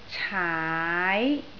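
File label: "caai2", Cantonese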